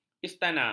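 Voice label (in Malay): "istana", Malay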